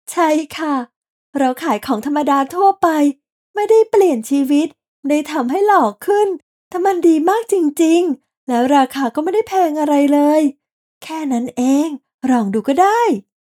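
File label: Ads Natural Sell, Radio Commercials, Female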